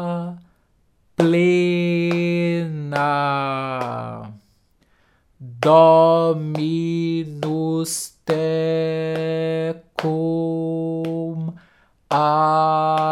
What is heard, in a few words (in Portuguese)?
ave maria arcadelt leitura ritmica